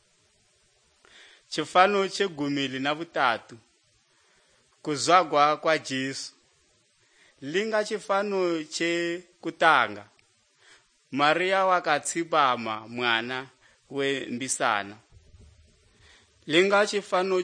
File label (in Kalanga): good news kalanga lilima, drama